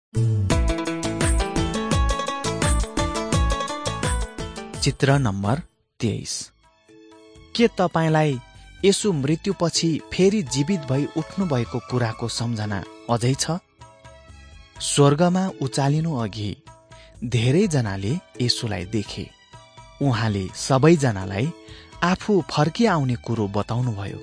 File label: jesus lord and saviour nepali